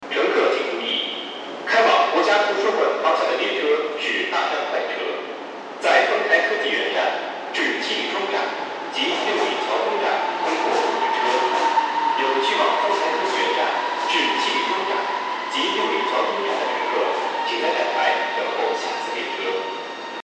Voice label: 9号线快车进站广播

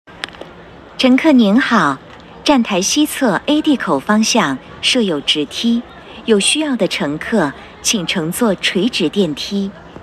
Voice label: 10号线-牡丹园站扶梯提示

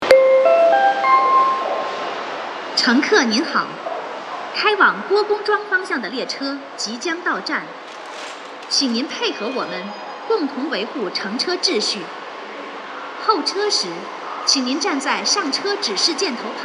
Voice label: 房山线郭公庄方向进站

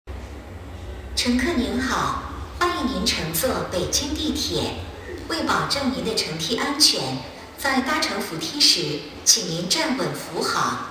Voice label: 运四广播扶梯提示

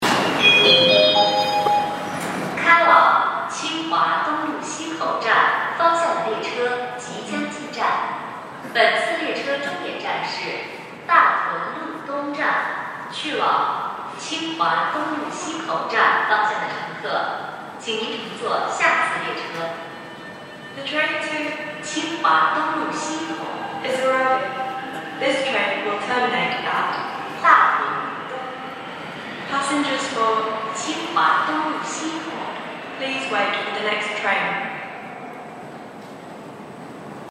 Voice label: 15号线 大屯路东交路进站广播

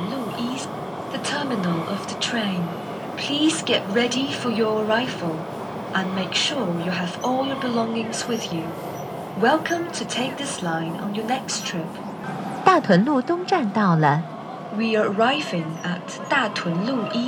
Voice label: 15号线 大屯路东终点 预报到站

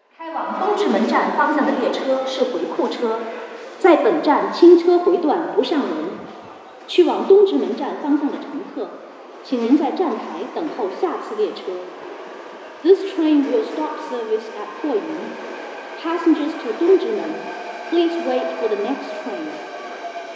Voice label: 13号线霍营站回库车提示

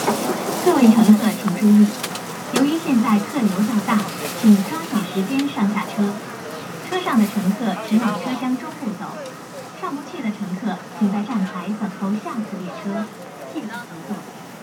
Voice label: 13号线 大客流提示

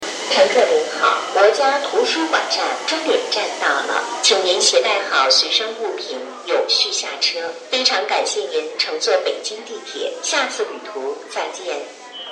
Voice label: 终点站站台广播

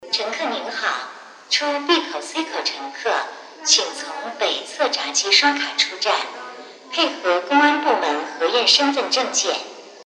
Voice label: 天安门东 出站提示

